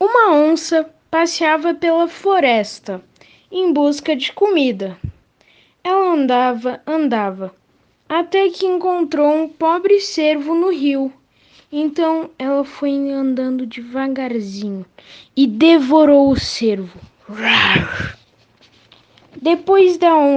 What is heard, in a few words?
AUDIOBOOK